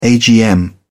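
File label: "agm", British English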